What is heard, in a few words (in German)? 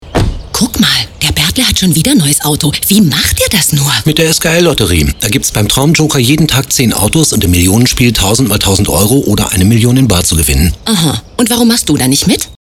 Dialogrolle Funkspot; Glöckle